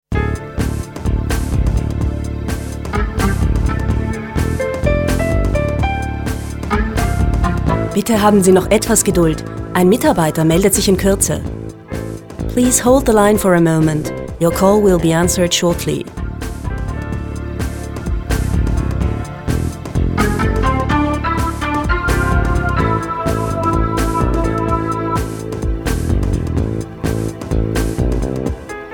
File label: OnHold; Telefonwarteschleife Greiner Sicherheitstechnik